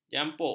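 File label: "jampuk", Malay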